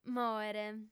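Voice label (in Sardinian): "mòere", female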